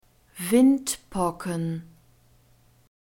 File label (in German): "windpocken", German